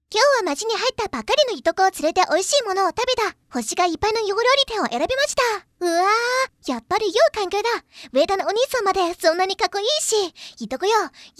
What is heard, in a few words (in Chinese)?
料理店快速